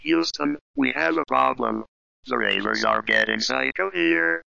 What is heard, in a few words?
robot, Robotic or Stuttery or Spooky